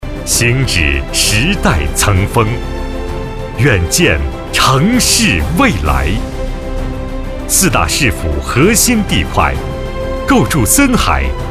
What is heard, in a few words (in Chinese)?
房地产宣传大气; 森海·怡和府